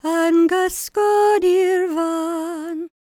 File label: WELSH F, World Voices